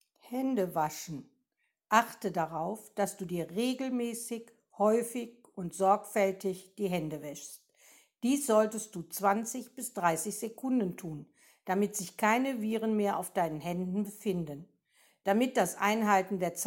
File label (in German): Deutsch